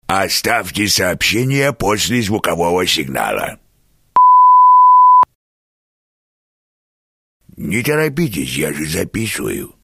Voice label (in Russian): Автоответчик